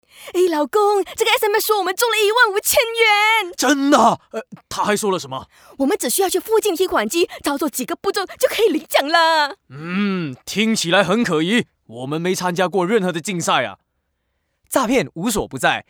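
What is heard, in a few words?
Mand Char, Mandarin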